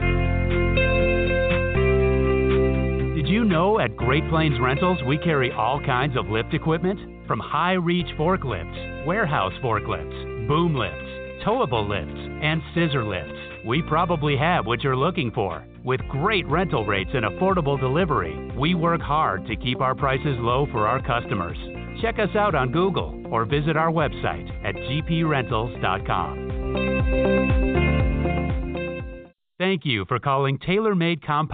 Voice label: phone